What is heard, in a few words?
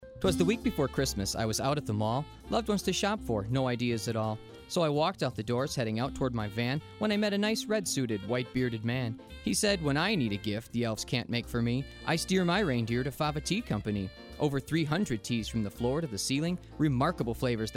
Radio